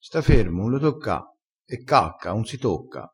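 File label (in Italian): "cacca", dialettale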